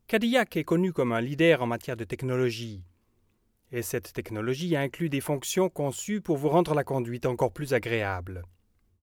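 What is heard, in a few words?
French industry; sprecher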